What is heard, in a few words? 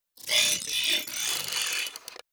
robot arthopoid greetings; Stellaris; synthetic dawn